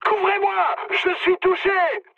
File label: aircraft damaged mood high, speech fr